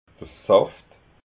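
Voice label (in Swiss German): "saft", Alsatian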